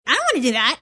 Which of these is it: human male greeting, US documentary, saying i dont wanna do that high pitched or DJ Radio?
saying i dont wanna do that high pitched